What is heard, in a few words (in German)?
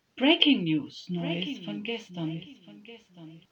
Jingel Breaking News Anmod; Promotion Reggae worldwide